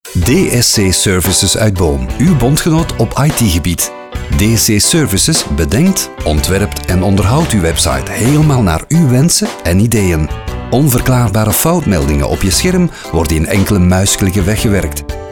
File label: Radio Spot